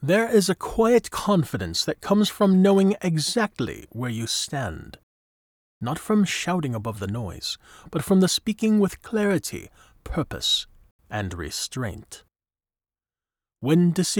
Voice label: English RP